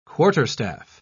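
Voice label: "quarterstaff", British English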